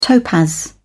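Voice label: "topaz", British English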